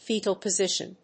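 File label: "fetal position", English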